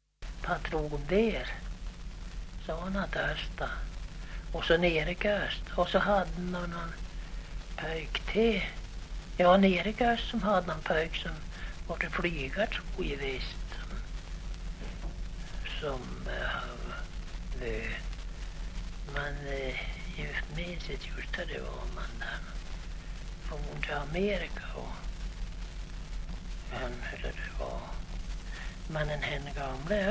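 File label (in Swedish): Häggenås Jämtland, dialekter; Folkminnen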